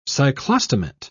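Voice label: "cyclostomate", British English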